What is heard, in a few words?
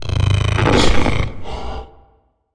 portrait synthetic; Stellaris; machineage